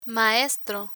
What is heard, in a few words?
"maestro", Spanish; learn spanish